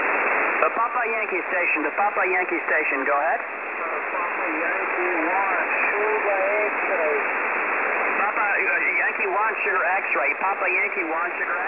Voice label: QSO 18113 kHz